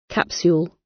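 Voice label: "capsule", British English; Time, history; Thời gian, lịch sử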